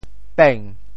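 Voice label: "peng2", Teochew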